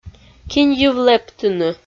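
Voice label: "кинь йыв лэптыны", Komi, female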